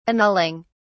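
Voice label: "annulling", British English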